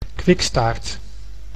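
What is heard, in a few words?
"kwikstaart", Dutch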